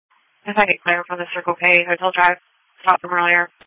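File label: Police Patrol Dispatch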